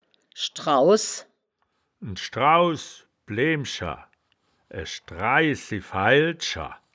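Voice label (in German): "schdrauss", wittlicher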